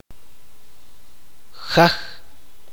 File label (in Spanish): "cuello", chuj